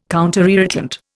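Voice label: "counterirritant", Indian English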